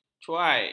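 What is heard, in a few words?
"cuai", Malay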